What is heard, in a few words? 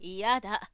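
"iyada", Japanese, woman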